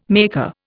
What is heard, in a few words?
"maker", Indian